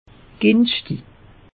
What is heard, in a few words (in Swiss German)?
"ginschtig", alsace; meteo